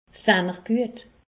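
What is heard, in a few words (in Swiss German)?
"guet", Alsatian; medecine; voyez vous bien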